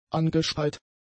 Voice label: "angespeit", German; adjectives

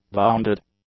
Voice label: "bounded", English; adjectives

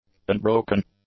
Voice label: "unbroken", English; adjectives

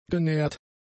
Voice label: "genähert", German; adjectives

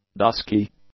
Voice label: "dusky", English; adjectives

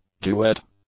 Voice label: "duet", English

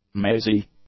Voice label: "mazy", English; adjectives

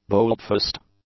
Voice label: "boldfaced", English; adjectives

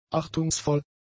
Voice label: "achtungsvoll", german; adjectives

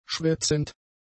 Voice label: "schwätzend", German; adjectives

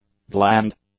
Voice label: "bland", English; adjectives

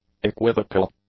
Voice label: "equivocal", English; adjectives

